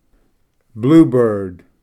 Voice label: bluebird, en

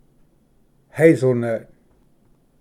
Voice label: "ᎢᏳᎩᏗ", Pronunciation; hazlenut

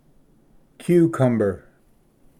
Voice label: "ᎦᎦᎹ", Pronunciation; cucumber

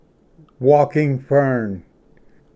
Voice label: "ᎢᎾᏓ ᎦᏅᎦ", Pronunciation; walking-fern

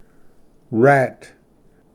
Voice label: "ᏆᏂᏡᏏ", Pronunciation; rat

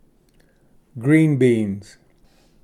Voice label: "ᏚᏯ ᎠᏂᏤᎢ", Pronunciation; green-beans

